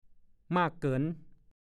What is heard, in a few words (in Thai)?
"มากเกิ้น", ภาษาโคราช; มากเหลือเกิน มากเกินไป